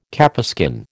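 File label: "capeskin", American English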